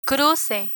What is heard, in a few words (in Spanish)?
"cruce", Spanish; picture dictionary; learn spanish